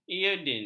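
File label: "iodin", Malay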